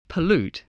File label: "pollute", English